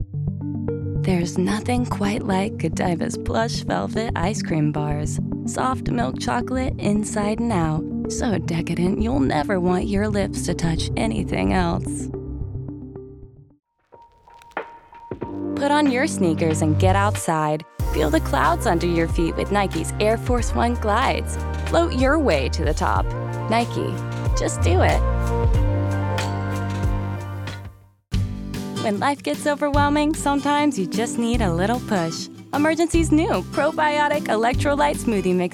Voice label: commercial, Female voices